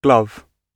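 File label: "glove", British English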